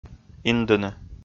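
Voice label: "индыны", Komi, male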